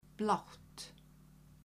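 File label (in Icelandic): "blátt", Icelandic, female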